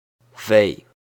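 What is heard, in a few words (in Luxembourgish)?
"wéi", Luxembourgish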